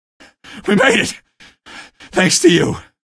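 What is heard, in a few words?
citizen evac thanks, male; vo; npc; source engine; episodic; episode 1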